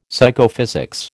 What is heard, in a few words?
"Psychophysics", American